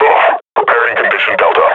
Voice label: under attack, combine grunt; Half Life Model Pack